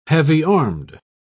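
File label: "heavy-armed", British English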